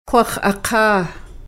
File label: kwaxaka, kwak'wala; Kwakwaka'wakw